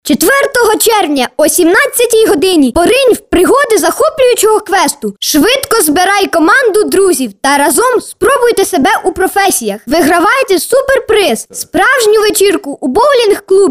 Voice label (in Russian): мальчик 8 лет, Ua